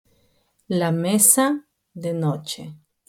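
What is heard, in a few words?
"mesita", Spanish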